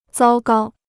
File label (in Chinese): "糟糕", Chinese